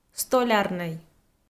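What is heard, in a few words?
"столярнӧй", Komi, female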